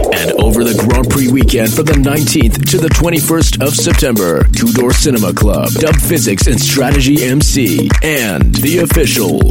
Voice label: English Singaporean Male, Party Trailer; Williams Martini Racing F1